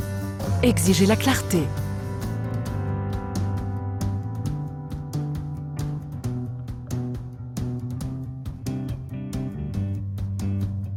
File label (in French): test de grossesse avec tige, voix off feminine france; clearblue plus boite 1